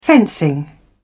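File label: "fencing", English, British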